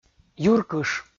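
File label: "юркыш", Komi-Zyrian, male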